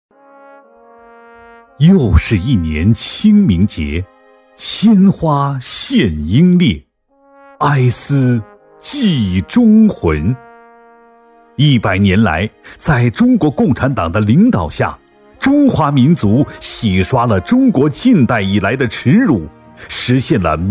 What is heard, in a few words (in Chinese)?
男 朗诵配音 清明节祭奠英烈旁白; 质感磁性